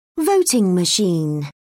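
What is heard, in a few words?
"voting machine", British English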